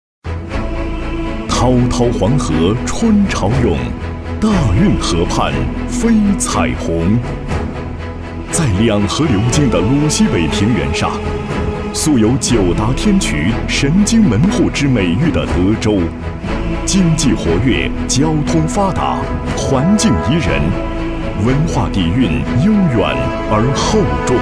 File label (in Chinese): 专题, 学校, 激情; 山东华宇工学院